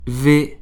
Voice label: French alphabet v